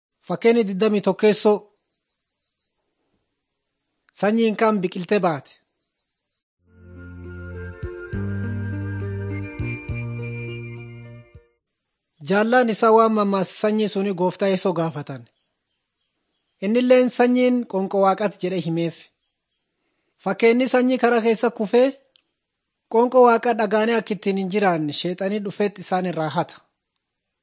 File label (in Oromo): borana